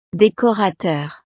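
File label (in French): "decorateur", French; profesiones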